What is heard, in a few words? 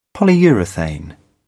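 "polyurethane", British English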